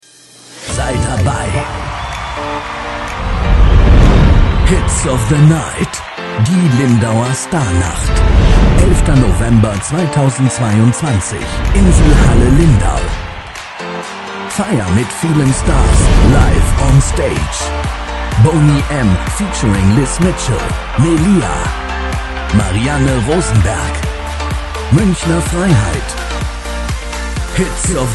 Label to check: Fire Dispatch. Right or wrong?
Wrong